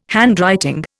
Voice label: "handwriting", Indian

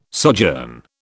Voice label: "sojourn", British

